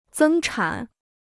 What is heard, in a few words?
"增产", Chinese